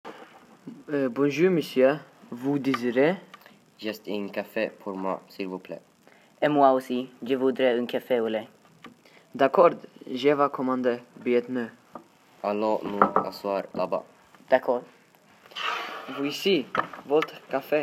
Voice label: voice note